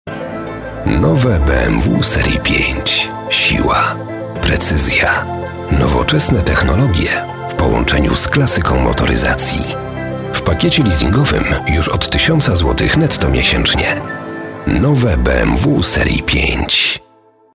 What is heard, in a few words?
commercial